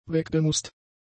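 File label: "weggemußt", German; adjectives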